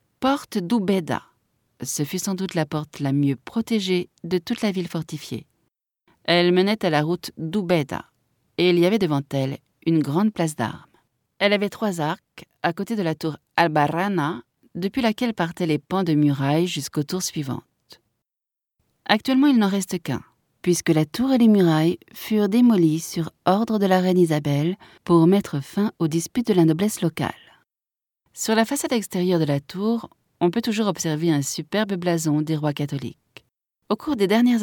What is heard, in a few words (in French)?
audioguia, frances; audioguia baeza